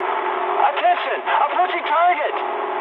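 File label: English near waypoint mood high; War Thunder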